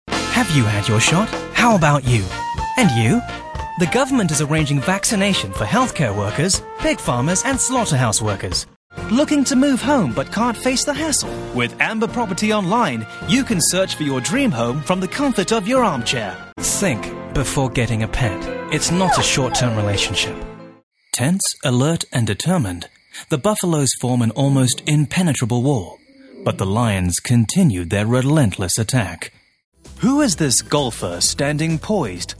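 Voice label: British English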